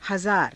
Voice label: "hazaara", Hindi